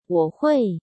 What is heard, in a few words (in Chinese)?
"我會", 中国語; 我會(ウォーホエ/私は出来る); 「會」は「出来る」という意味; taiwandetukauchinese